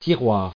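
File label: "tiroir", French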